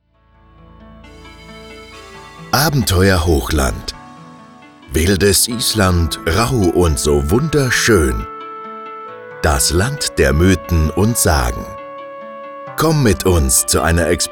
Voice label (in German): Trailer Reise